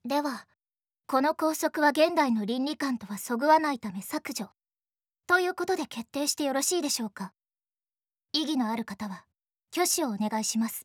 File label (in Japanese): 女子